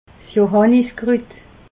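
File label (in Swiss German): "johanniskrut", Alsatian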